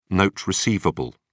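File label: "note receivable", British English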